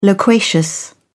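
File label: "loquacious", British English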